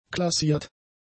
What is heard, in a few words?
"klassiert", German; adjectives